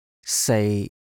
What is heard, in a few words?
"sei3", cantonese